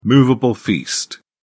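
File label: "movable feast", British English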